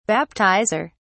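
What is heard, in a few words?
"baptizer", British English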